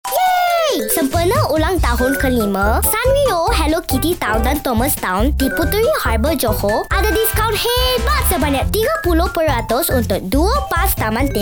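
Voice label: Malay; Hello Kitty Theme Park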